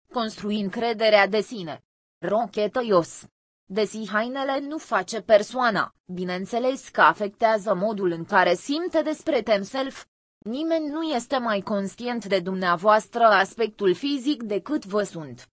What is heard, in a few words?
Romanian Female